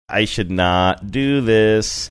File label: saying i should not do this sing songy